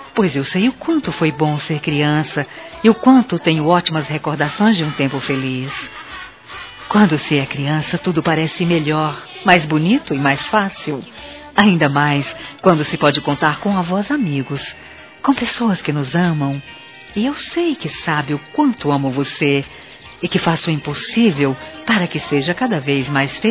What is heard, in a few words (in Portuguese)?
Aniversário Neta Feminino; Aniversário Infantil; Telemensagens